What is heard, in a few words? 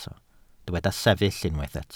"sefyll", welsh